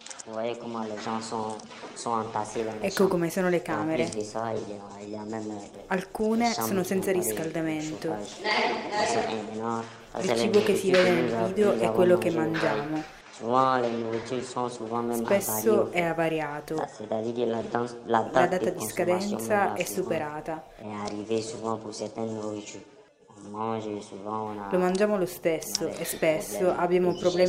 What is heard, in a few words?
voiceover; castione